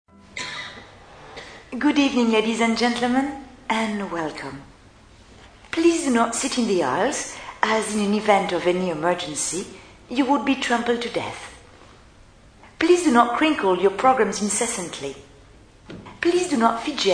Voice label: Casual, Funny; Casual/Léger; Introduction Audience/GB